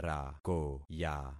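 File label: "rakoya", concatenated syllables; Affixing experiment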